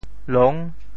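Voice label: "long6", Teochew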